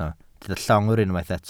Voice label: "llongwr", Welsh

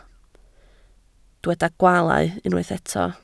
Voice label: "gwaalau", Welsh

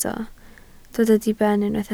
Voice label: "diben" Welsh

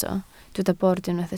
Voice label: "bord", Welsh

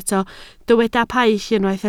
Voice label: "paill" Welsh

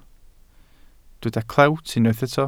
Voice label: "clewt" Welsh

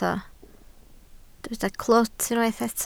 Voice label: "clwt", Welsh

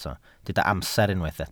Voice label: "amser", Welsh

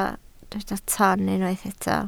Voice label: "toon" Welsh

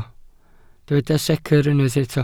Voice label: "secr" Welsh

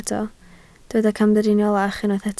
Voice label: "camdriniolach", Welsh

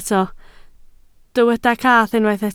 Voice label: "cath", Welsh

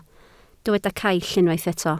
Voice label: "caill", Welsh

